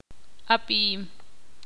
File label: ixil